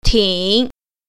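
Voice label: "ting3", Mandarin Chinese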